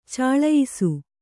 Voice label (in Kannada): "ಚಲಿಯಿಸು", Kannada